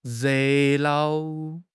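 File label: "re4 nao5", Chinese; HSK4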